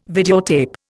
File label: "videotape", Indian